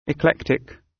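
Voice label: "eclectic", British; The arts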